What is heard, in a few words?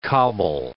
"cobble", English